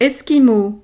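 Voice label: "esquimau", French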